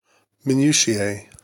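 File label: "minutiae", English; pronunciations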